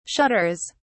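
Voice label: "shudders", British English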